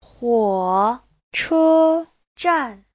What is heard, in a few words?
"huo3 che1 zhan4", chinese